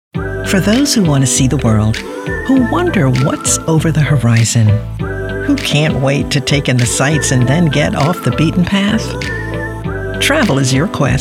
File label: commercial